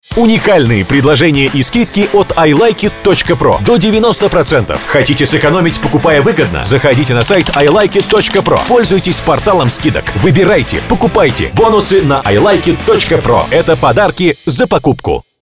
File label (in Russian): Аудио реклама